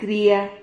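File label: "cria", Spanish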